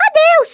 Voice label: "bye bye", Portuguese; Worms Armageddon

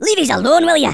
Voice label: "Leave me alone", Angry Scots; Worms Armageddon

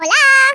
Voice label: "hello", Hispanic; Worms Armageddon

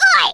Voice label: "ouch", Speech, Geezer; Worms Armageddon

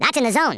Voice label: "excellent", US Sports

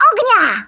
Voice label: FIRE, Polish; Worms Armageddon

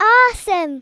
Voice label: amazing, Speech Kidz; Worms Armageddon

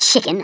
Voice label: "COWARD", Stiff Upper Lip

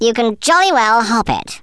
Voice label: "go away", speech; Worms Armageddon

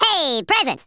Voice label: "drop", Redneck

